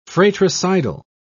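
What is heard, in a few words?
"fratricidal", British English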